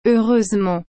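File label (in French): "heureusement", French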